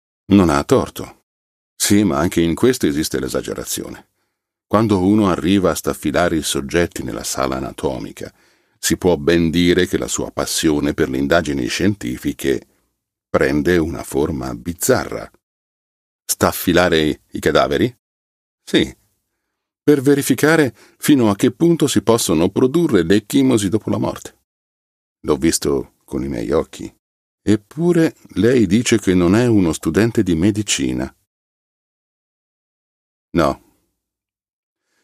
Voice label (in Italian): Audiolibro, male voice over